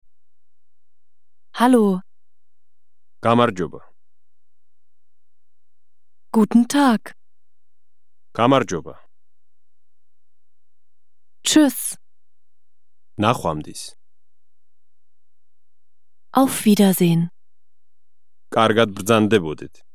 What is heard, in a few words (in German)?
georgisch